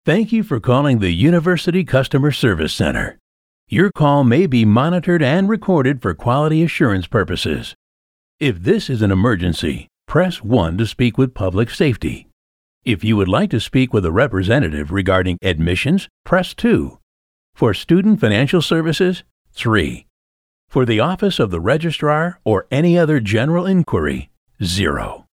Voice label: us english ivr